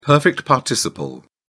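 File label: "perfect participle", British English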